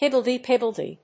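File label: "higgledy-piggledy", English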